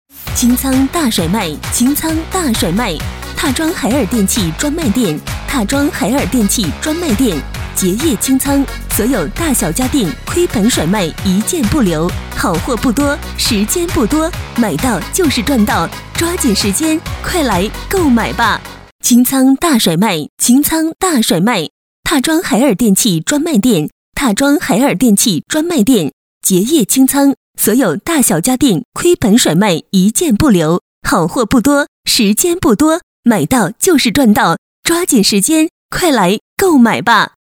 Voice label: 女 促销叫卖

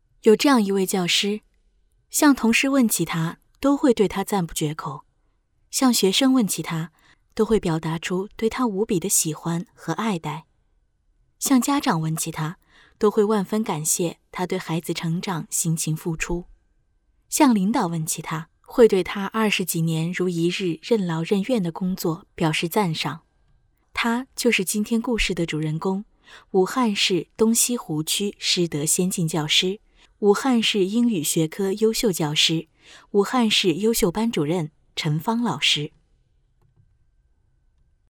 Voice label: 女 中文专题 淡淡温馨沙画

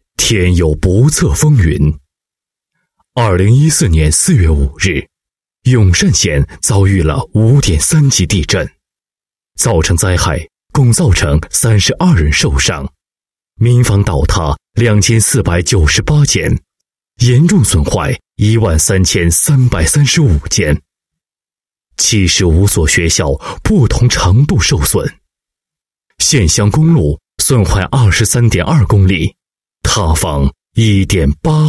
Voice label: 男 讲述 地震, 国语男声配音

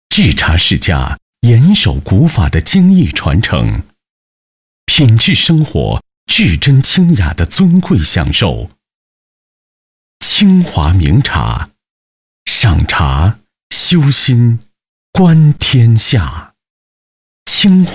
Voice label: 男25, 国语男声配音; 制茶世家

